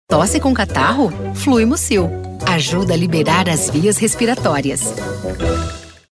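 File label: Brazilian Portuguese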